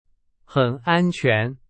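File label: Chinese, example sentence; hsk2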